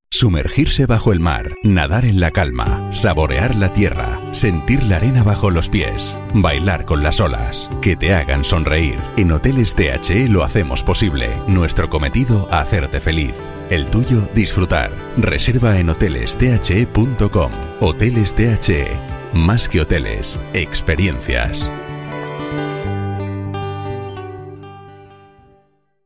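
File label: M SP commercial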